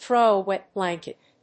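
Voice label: "throw a wet blanket", English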